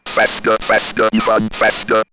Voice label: Robot Faster Faster, robot vox; X-Static Goldmine